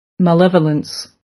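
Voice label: "malevolence", American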